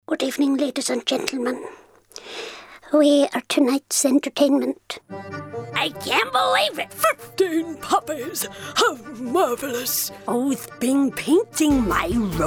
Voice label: animation

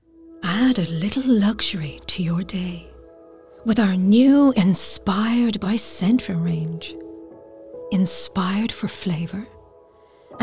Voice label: Commercial, actor female

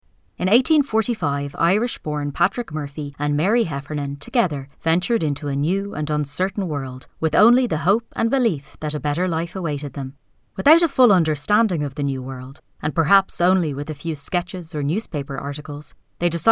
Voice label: narration

